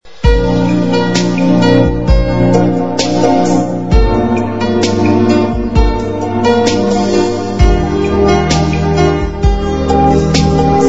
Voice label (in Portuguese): Mensagem Amiga F